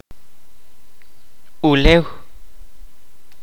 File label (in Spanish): "tierra", Kaqchikel